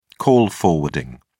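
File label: "call forwarding", British English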